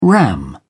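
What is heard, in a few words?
"ram", British English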